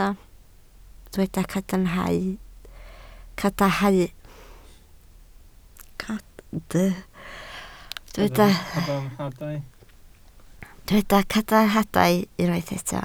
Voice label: "cadarnhadau", Welsh

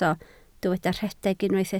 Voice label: "rhedeg", Welsh

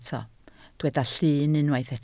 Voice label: "llun", Welsh

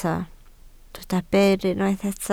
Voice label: "byr", Welsh

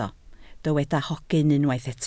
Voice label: "hogyn", Welsh

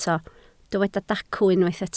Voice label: "dacw", Welsh

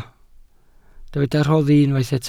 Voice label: "rhoddi", Welsh

